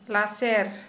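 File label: Santali female sharp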